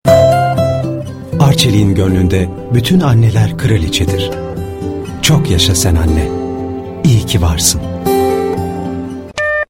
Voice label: reklam drama; arcelik

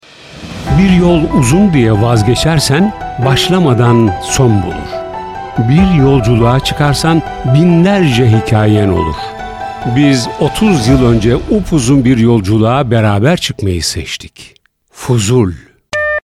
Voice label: reklam drama karizmatik; fuzul

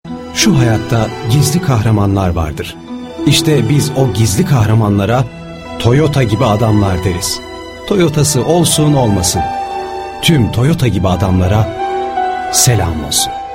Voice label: reklam duygusal; toyota

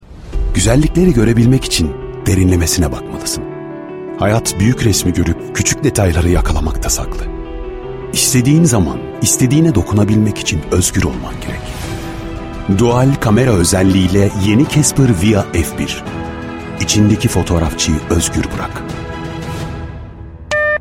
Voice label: karizmatik; caspervia

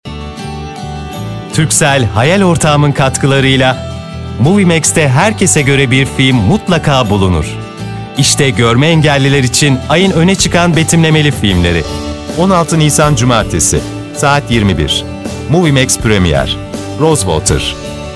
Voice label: tanitim; moviemax turkcell

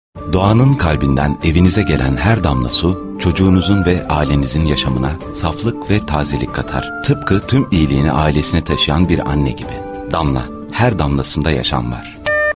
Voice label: reklam konusmatarzinda; damla

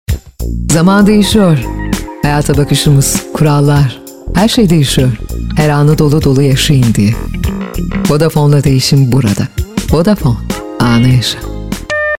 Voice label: reklam karizmatik cazibeli; vodafone; suh

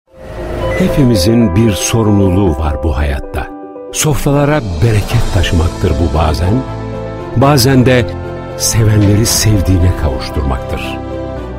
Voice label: reklam drama; negmar

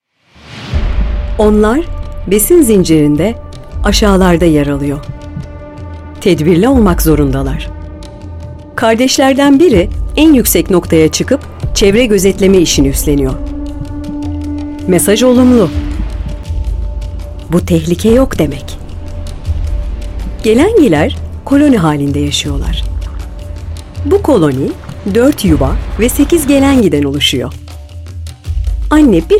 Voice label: belgesel; gelengiler

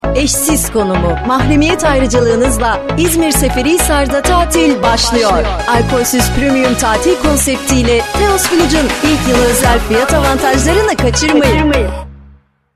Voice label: reklam enerjik coskulu; teos village

